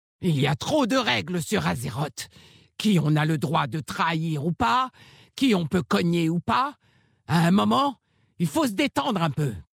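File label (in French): French Femme Blague; Orc Maghar; Regles Azeroth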